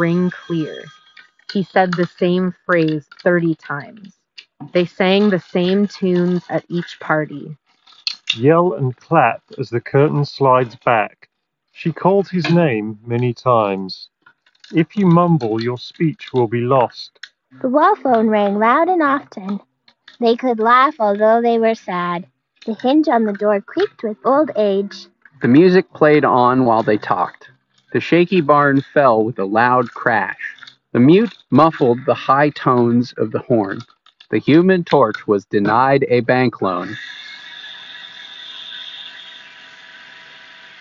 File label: 1More Comfobuds 2 Office microphone sample